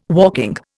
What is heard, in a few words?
"walking", Indian